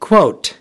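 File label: "Quote", American English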